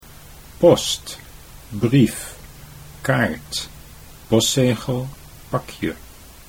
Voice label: "post brief", Dutch

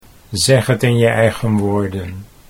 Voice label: zegt in je eigen woorden, Dutch; 'Use your own words'

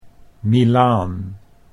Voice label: "Milaan", Dutch

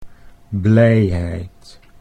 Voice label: "blijheid", Dutch

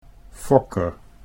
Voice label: "fokker", Dutch

